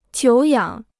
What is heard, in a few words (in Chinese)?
"久仰", Chinese